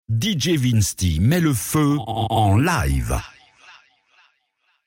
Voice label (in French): Drop Le feu Live